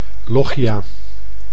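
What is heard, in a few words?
"lochia", Dutch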